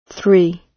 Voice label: "three", gb